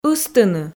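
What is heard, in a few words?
"ыстыны", Komi, female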